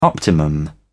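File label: "optimum", British English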